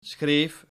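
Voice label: "schreef", Dutch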